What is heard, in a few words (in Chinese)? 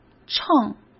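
"秤", Mandarin Chinese; hsk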